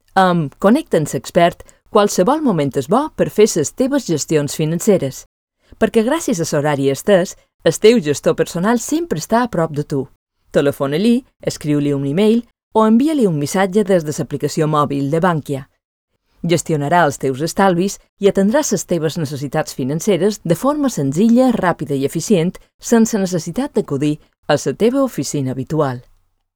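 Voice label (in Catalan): catalan female; bankia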